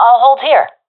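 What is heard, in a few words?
waiting here, professional female; vo; Counter Strike Model Pack; CS:GO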